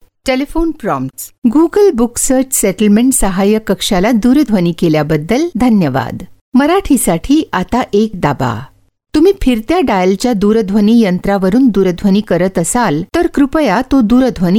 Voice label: Marathi, Female; India